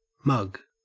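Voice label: "mug", Australian English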